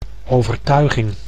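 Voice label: "overtuiging", Dutch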